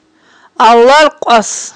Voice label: "аларкьвас", aghul